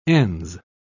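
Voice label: "ens", British English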